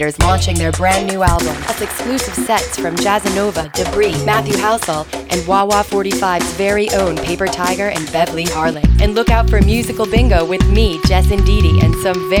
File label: Scala Ad